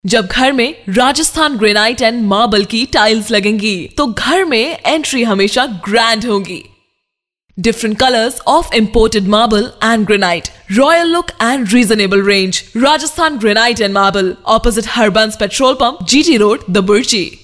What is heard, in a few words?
hindi